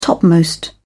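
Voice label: "topmost", British English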